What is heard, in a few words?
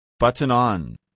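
"button-on", English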